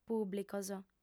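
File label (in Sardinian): "pùblicos", female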